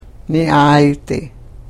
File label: karuk